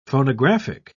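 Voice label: "phonographic", British English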